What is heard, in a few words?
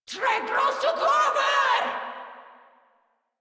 Attack Grineer Female; Warframe